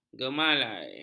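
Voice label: "gemalai", Malay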